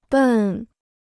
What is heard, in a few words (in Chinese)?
"笨", Chinese